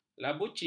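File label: "labuci", Malay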